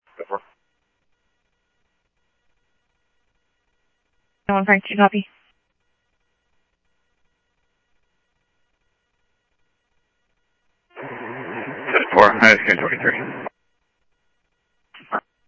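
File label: Police Department, scanner audio trunk; ThompsonPeakHigh; PHOENIX PD-200 A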